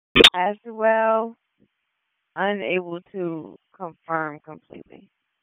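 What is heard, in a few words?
Public Safety Tactical, p25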